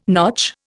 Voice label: "notch", Indian